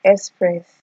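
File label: "express", Nigerian Pidgin, female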